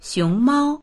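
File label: "xiong2mao1", Mandarin Chinese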